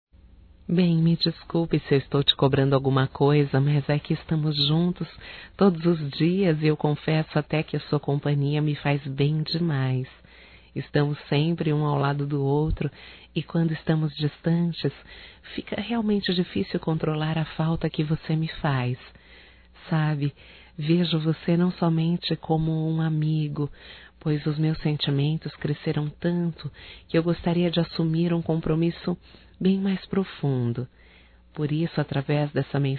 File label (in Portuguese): PAQUERA(F), Românticas fem